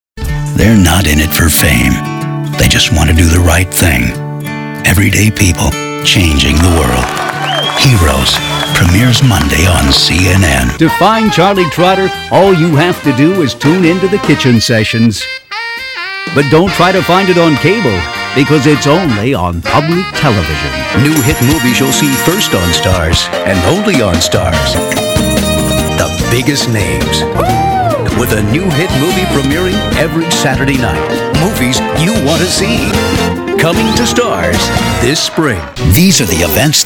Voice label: Promo Voice